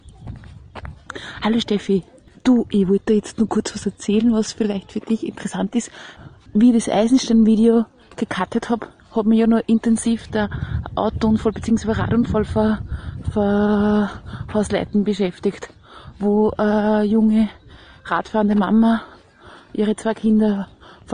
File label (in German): Telefonaufnahme